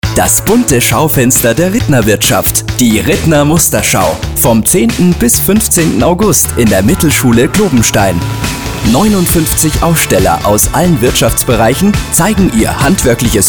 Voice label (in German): radiospot deutsch